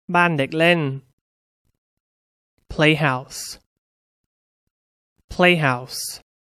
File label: "play house", English; Toys